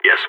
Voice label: affirmative, vo balkan; Counter-Strike Global Offensive

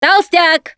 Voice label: warn boomer, russian, Survivor Voice Producer

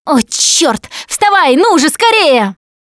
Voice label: revive friend loud, Russian; Producer

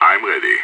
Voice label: in position, player vo; idf

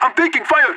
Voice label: radio taking fire; player vo leet

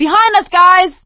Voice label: watch out behind, survivor voice; Left 4 Dead 2; producer

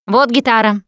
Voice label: melee weapons, russian, Survivor Voice Producer

